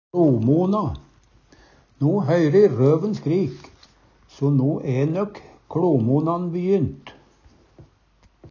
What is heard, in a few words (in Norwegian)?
"kLomona", Numedalsmål; No høyre e røven skrik, so no æ nøk kLomonan bejynt.